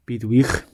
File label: goodbye, gwentian